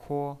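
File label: "kuo", pinyin, Mandarin Chinese, first tone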